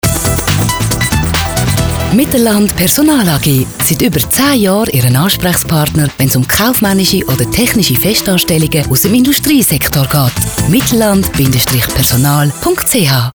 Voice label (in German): radiowerbung